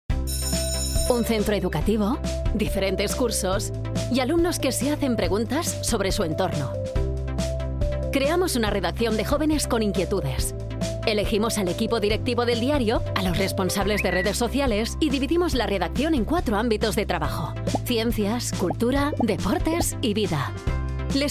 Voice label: spanish promos